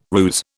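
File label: "ruse", British English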